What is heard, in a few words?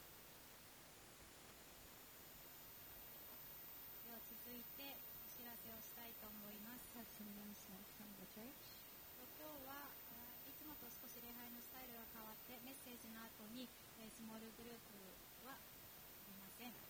announcement; sunday message 2021